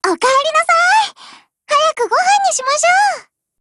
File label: HELLO, JP; GF Alarm